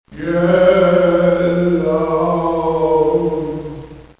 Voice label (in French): Get out fantôme, bruitage Halloween